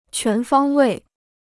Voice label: "全方位", Chinese

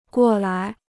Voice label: "过来", Chinese

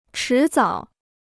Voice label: "迟早", Chinese

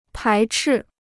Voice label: "排斥", Chinese

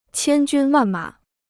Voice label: "千军万马", Chinese

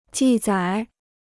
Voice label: "记载", Chinese

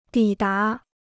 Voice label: "抵达", Chinese

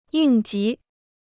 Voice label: "应急", Chinese

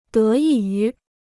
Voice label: "得益于", Chinese

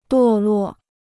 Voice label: "堕落", Chinese

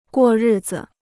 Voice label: "过日子", Chinese

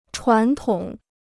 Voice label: "传统", Chinese

